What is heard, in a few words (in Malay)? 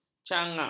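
"cangak", Malay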